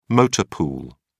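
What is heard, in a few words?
"motor pool", British English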